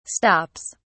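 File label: "stops", English, British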